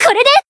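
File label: Voice finish, Japanese; flammelapis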